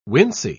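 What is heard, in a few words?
"wincey", British English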